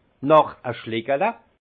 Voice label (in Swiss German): "noch e schlikele", alsace; vin; encore une gorgée